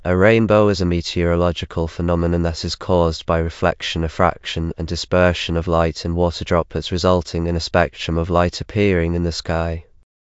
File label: speaker, en GB; piper voices; vctk